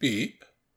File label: Voices intrigued; The Looker